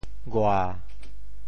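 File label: "gua7", Teochew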